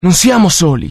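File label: curious, Italian; mohaa; dialogue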